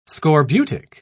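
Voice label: "scorbutic", British English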